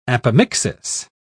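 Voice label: "apomixis", British English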